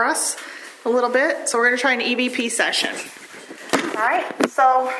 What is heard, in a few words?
Whispering; Belleville; Kids Day; belleville michigan